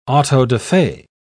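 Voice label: "auto-da-fe", British English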